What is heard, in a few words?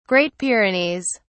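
"great pyrenees", British English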